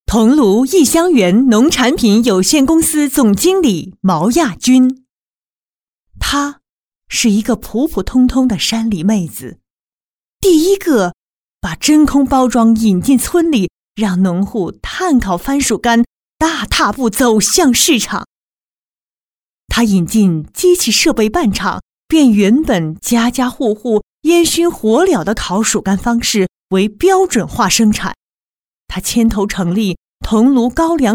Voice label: 女 颁奖专题 颁奖仪式人物介绍; 知性 温婉; 大气激昂

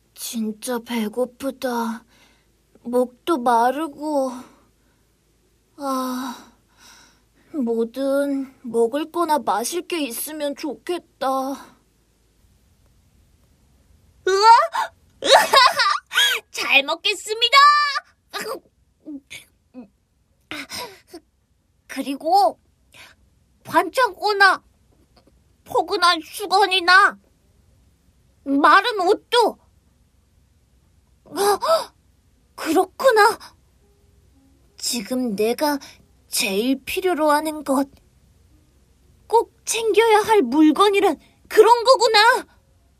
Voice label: 韩语女声, 游戏信息流

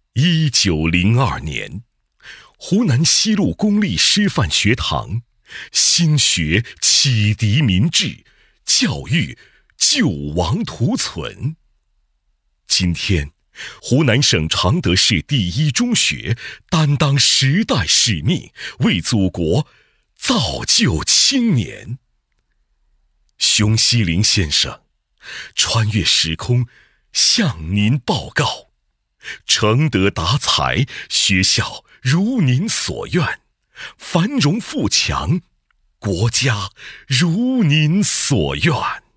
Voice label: 男 学校宣传 大气磁性; 常德市第一中学

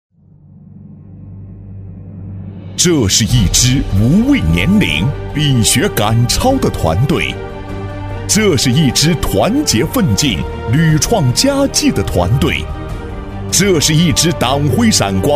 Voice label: 男, 党建专题, 银行, 激情澎湃; 大气激情; 激情力度; 男138-党建专题《银行》-激情澎湃

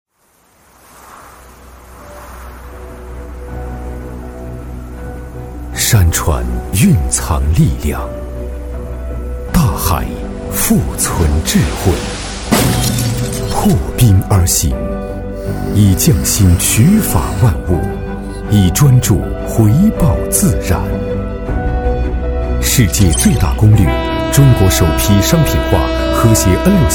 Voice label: 男 企业宣传片 激昂浑厚; 中车资阳

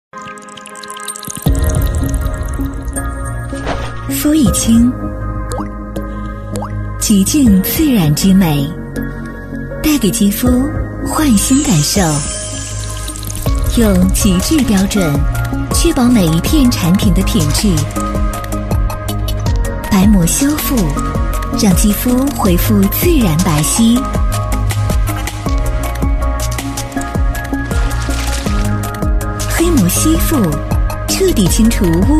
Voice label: 女 品牌广告 高端大气; 肤益清; 磁性甜美 科技时尚

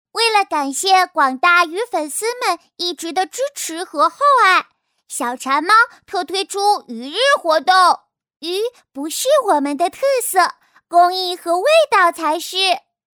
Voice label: 女 童声 5、6岁女童 小馋猫

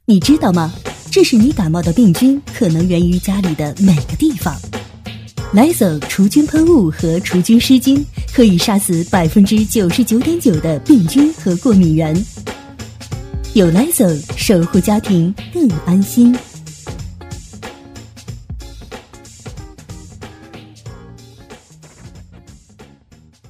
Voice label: 女, 广告配音, 除菌广告 快速沉稳

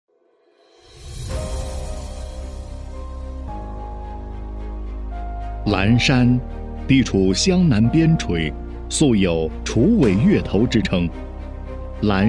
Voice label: 男 专题配音 稳重大气; 柔和; 大气浑厚

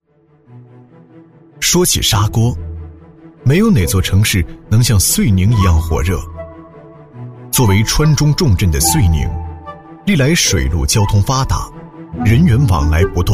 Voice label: 男 舌尖风格; 大气主流; 舌尖感; 砂锅江湖

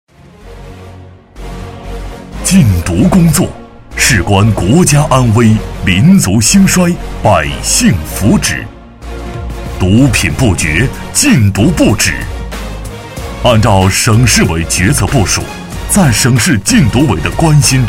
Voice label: 男, 禁毒专题片, 禁毒, 铿锵有力; 厚重力度; 大气浑厚; 男201-禁毒专题片《禁毒》-铿锵有力

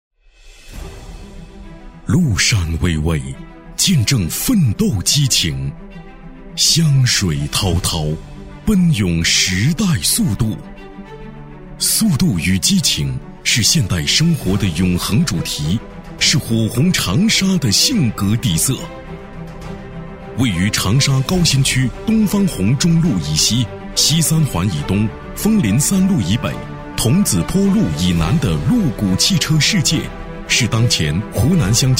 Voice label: 男 专题配音 激情厚重; 大气主流; 大气浑厚

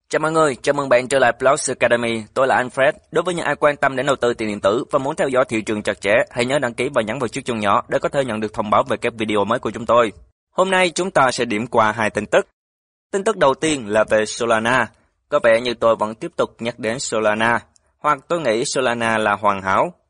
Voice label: 越南语男声

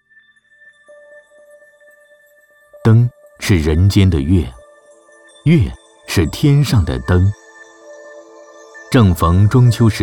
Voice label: 男, 纪录片 南京博物馆; 男186-纪录片 南京博物馆

